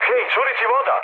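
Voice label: Serbian damaged water mood med; speech; War Thunder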